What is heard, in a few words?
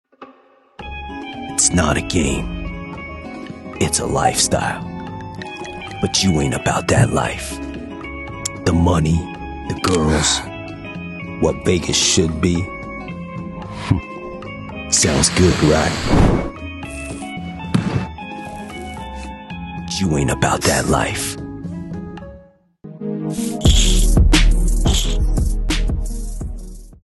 Male Commercial Grit